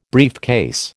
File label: "briefcase", American